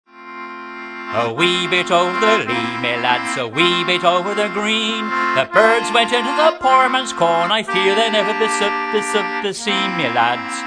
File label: stuttering